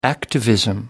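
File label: "activism", English, British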